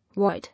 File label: "Void", English